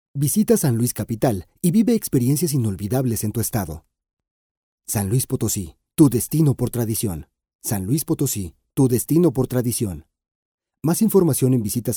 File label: locutor mexicano mexican voiceover; Locutores mexicanos